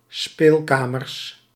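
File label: "speelkamers", Dutch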